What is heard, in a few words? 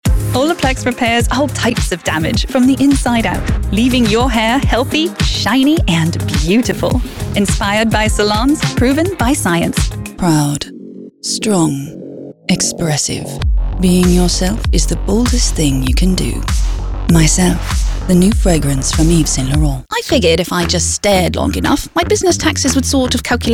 British Commercial